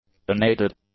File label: "unaided", English; adjectives